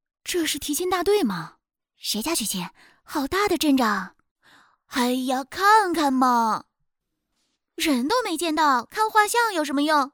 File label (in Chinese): 多角色